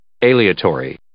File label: "aleatory", English